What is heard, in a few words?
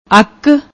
"aukk", Punjabi